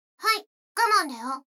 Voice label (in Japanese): 女の子 台詞, ASMR